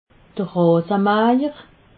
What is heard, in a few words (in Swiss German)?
"rasemajer", alsace